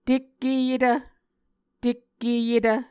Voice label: "tekkeyetv", muscogee; A Dictionary of Creek/Muskogee; SIL International